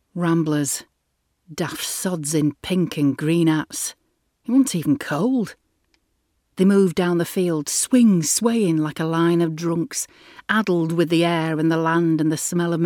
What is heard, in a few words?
yorkshire